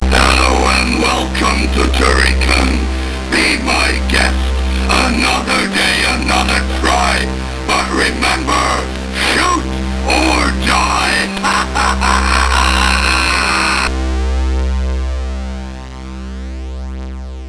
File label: intro speech, MOS6581R4